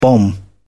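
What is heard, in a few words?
"bomb", British English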